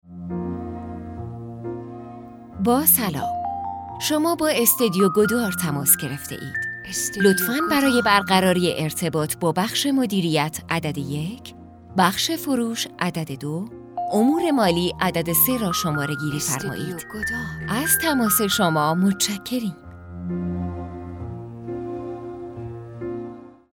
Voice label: IVR